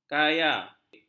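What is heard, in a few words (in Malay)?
"kaya", Malay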